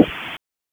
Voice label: Raleigh Police LE Ops 8, P25 recordings